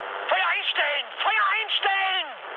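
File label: German player friendly fire mood high, speech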